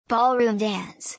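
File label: "ballroom dance", English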